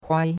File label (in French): "huai1", chinois; Cours de Chinois